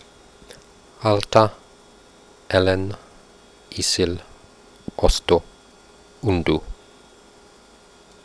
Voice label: quenya short vowels